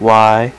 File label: "y" male; alphabet; Web Clip Empire 150,000